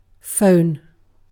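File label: "phone", British English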